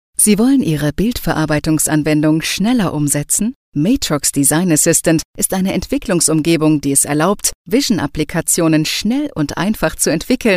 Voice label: E Learning Mix

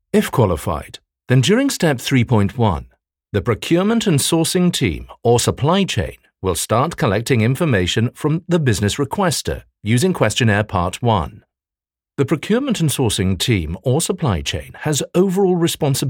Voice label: E-learn

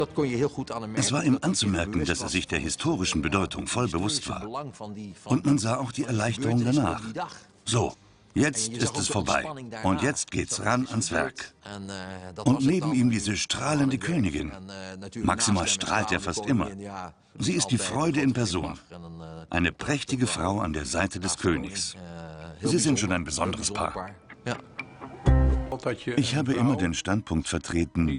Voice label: Voice Over

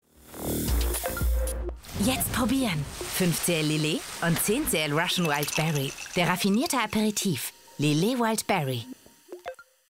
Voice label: german; Schweppes; Lillet

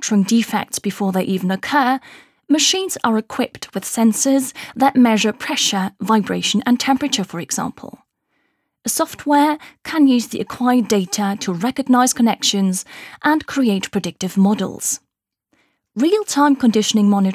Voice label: VO smart maintenance en

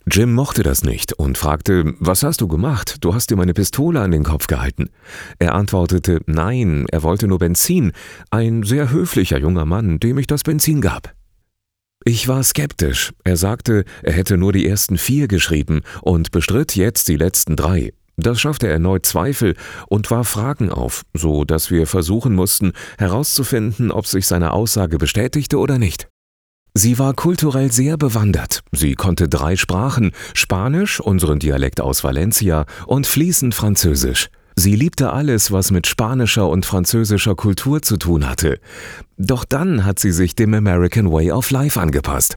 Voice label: Voice Over

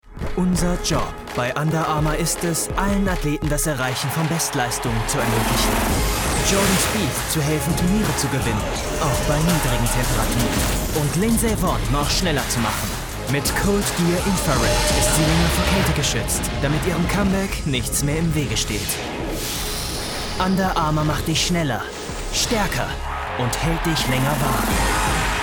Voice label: COMMERCIAL OFF; Under Armour UA Makes You Better

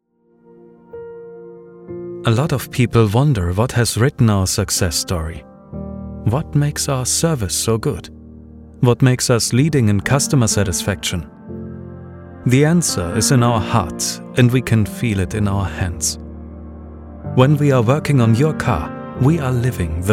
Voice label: ENGLISH commercial werbung

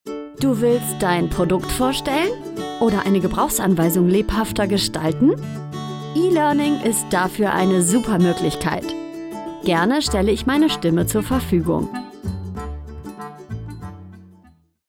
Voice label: E-learning

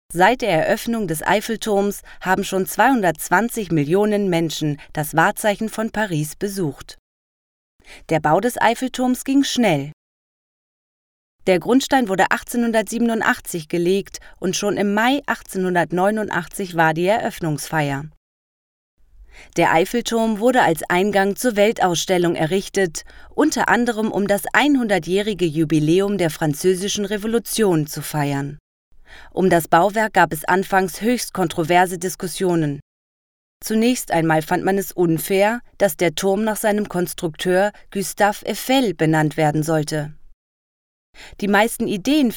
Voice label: Audioguide

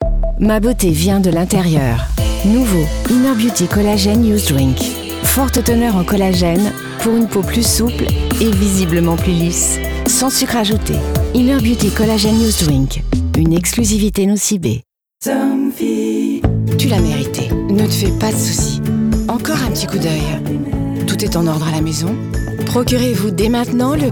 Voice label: Mix Commercial

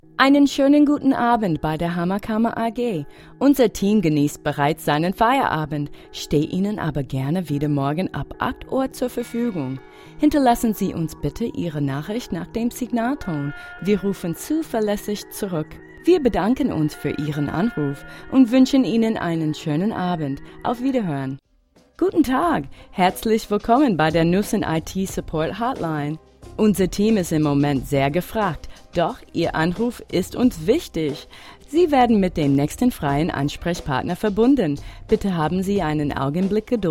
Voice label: Telephony de en

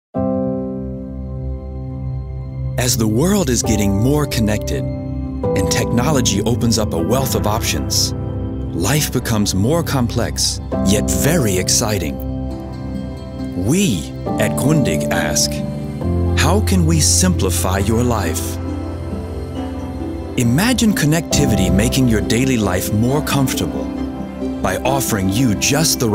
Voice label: US ENG narrator; Grundig connected home

